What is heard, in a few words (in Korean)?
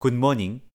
"굿모닝" gunmoning, Korean; hello in korean